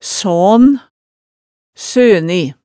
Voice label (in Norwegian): "saan", hemsedalsmaalet, tale